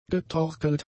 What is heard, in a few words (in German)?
"getorkelt", german; adjectives